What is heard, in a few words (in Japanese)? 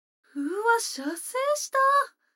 女の子台詞